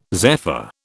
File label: "zephyr", British